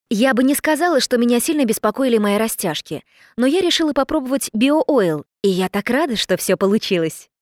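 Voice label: F RU drama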